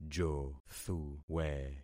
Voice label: "jothuwe", concat syllables; Affixing experiment